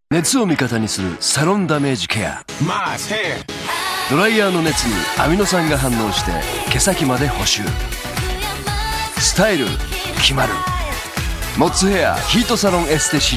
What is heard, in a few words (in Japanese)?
ナレーションサンプル; モッズヘア; ヒートサロンエステ